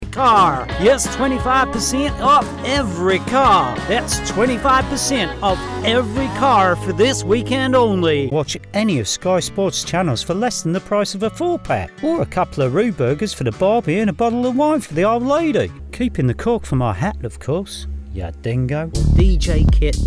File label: Accent Demo Reel